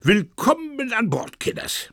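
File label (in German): "Willkommen an Bord", German; dieseitemitkaeptnblaubaer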